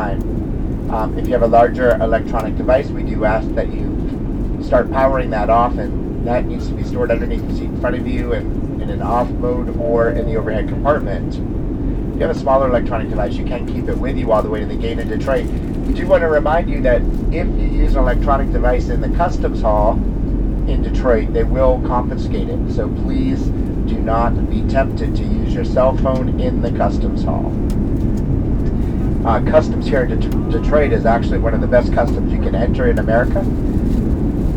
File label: flight announce